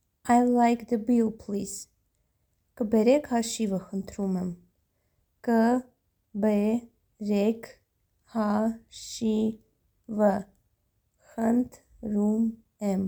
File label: Id like the bill please Armenian Kberek hashivy khndrum em